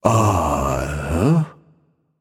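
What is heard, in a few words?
"seriously", male; baronsamedi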